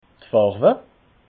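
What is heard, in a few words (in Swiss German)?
"farwe", Alsatian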